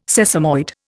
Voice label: "sesamoid", Indian